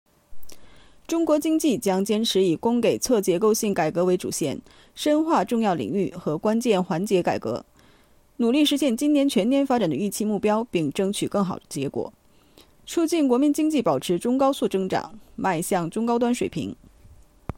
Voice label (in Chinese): 新闻类